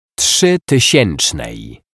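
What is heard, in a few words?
"trzytysiecznej", Polish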